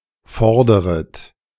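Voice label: "forderet", German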